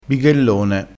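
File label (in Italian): "bighellone", dialettale crespinese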